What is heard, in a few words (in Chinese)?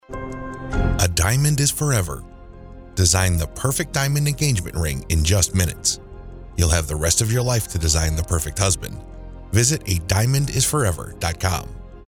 钻石宣传广告